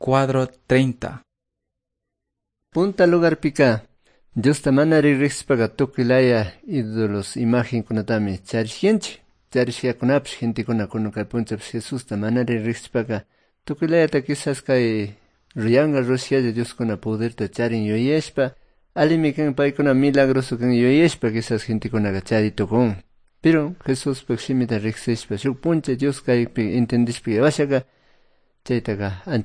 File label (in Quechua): good news quichua cayambe